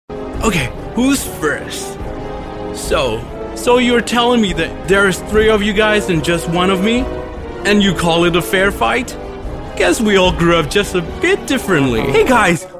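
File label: MY AccEN Characters, Burmese accented English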